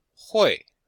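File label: "hui4", Chinese; Cours de Chinois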